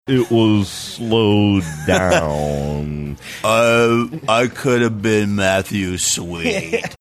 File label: chopped screwed it was slowed down